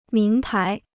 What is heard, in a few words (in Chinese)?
"名牌", Chinese